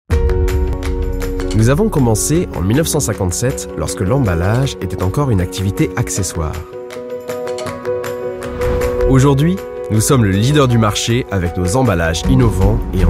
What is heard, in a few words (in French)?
Institutionnel; Papier Mettler